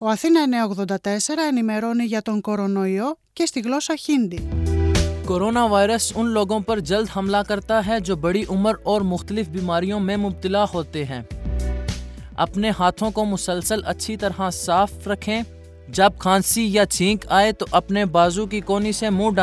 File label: hindi; ios; COVID19-SPOTS